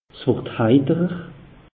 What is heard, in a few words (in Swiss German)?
"wurd heiterer", alsace; meteo